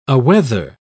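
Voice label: "aweather", British English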